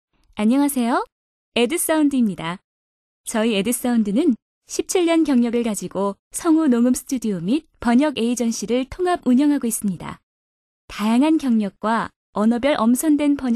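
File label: Korean female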